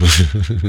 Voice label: VOX FX; E-Lab; X Static Goldmine 2; akai